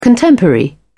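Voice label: "contemporary", British English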